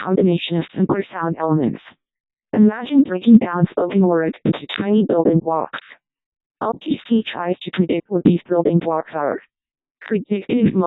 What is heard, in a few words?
high voice codec2